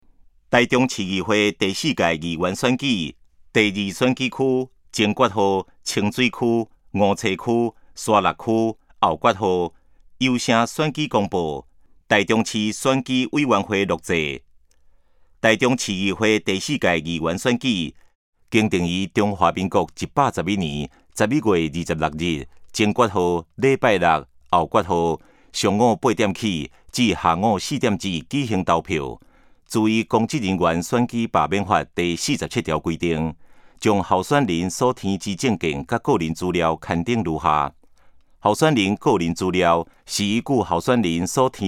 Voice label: 前言, 台語; 臺中市; 有聲公報; 市議員; 第02選區

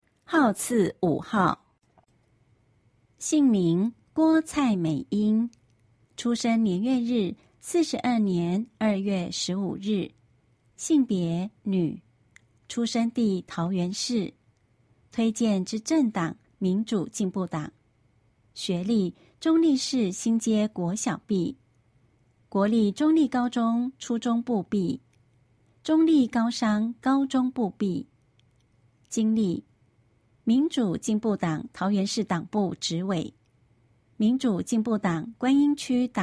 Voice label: 國語; 有聲公報; 市議員

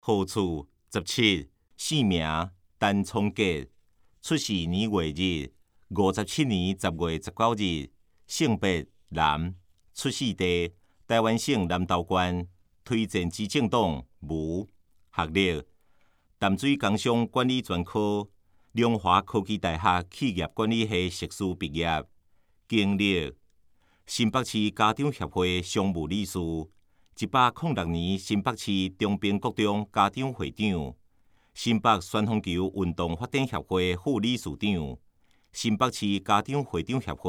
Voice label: 台語; 新北市; 市議員; 第3選區; 有聲公報

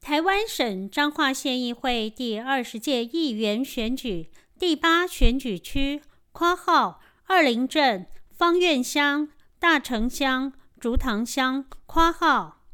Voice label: 前言 國語; 彰化縣; 縣議員; 第八選舉區; 有聲公報

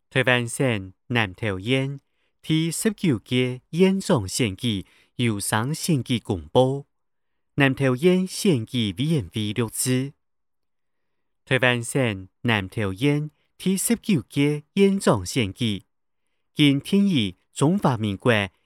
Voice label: 客語; 南投縣; 有聲公報; 縣長

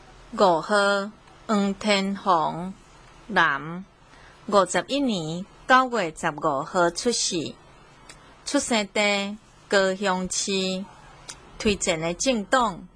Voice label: 台語; 高雄市; 高雄市市長議員有聲選舉公報; 第十一選區

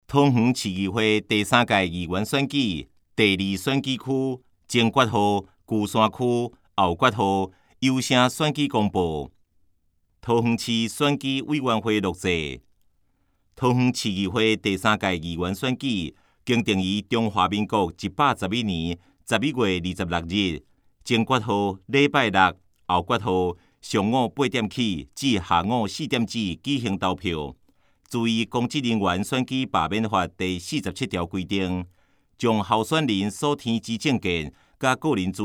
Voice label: 前言, 台語; 有聲公報; 市議員

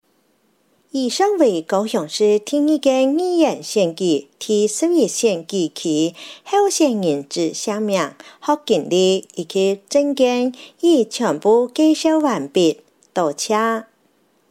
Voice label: 客語; 高雄市; 高雄市市長議員有聲選舉公報; 第十一選區

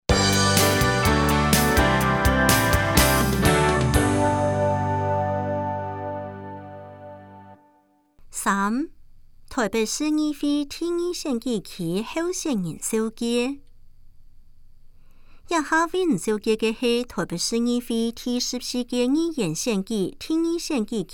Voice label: 客語; 臺北市; 有聲公報; 市議員; 臺北市議會第14屆議員第2選舉區 (內湖、南港區)候選人介紹

